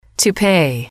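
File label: "to pay", English, female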